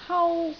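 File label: "hau1", Cantonese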